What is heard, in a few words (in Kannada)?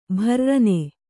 "ಭುರ್ರನೆ", Kannada